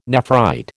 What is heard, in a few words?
"nephrite", American